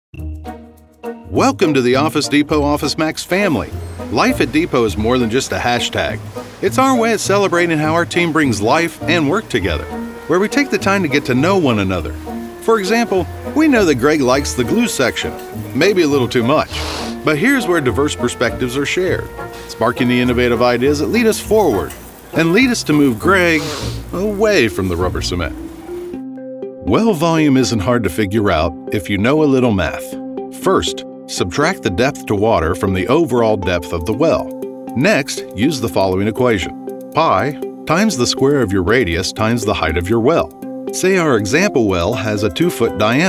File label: E-Learning